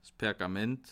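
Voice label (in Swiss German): "pergament", Bas Rhin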